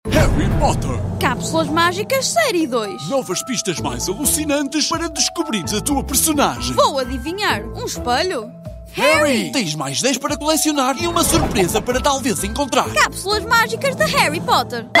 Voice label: Iberian Portuguese Commercial

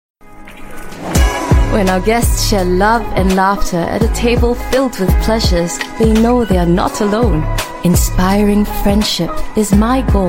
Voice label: Asian English Friendship

